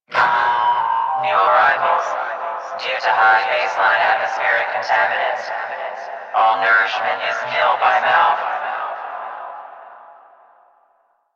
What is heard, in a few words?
PA system; en; Dialog; Warframe; Solaris Venus